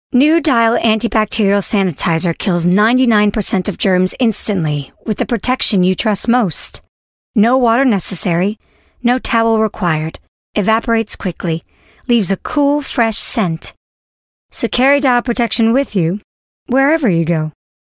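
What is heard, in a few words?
american english female, voice samples commercial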